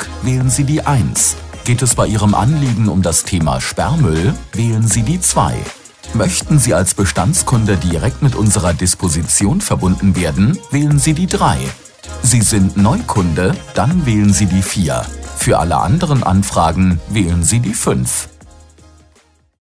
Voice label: Entsorgung ivr